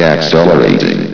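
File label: "accelerating", robotic voice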